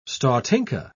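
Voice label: "stotinka", British English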